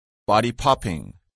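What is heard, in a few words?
"body-popping", English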